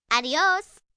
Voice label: Spanish Goodbye, Greetings, 1 girl, 9 yrs., Spanish; Talking Sound Effects